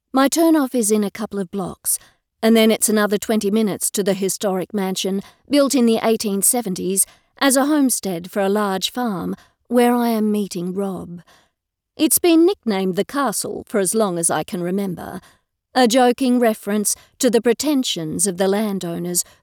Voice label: Aus Accent; Second Sight